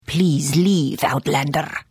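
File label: Service, Khajiit female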